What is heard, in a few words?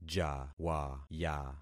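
"jawaya", concatenated syllables; Affixing experiment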